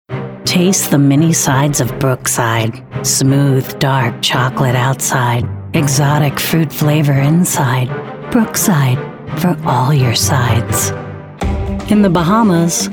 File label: Commercial Mix